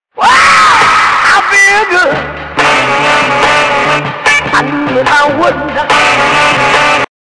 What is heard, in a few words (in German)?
megaphon